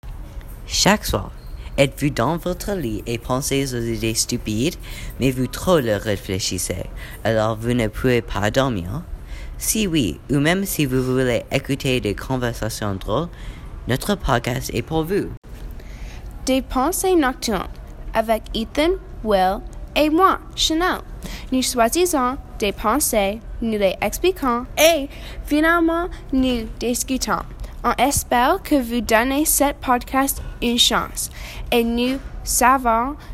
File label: Advertisement